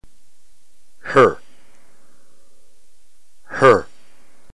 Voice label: rho, ntgreek phoneme